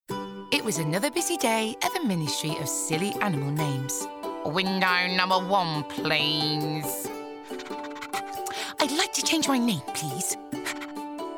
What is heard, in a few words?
Audiobook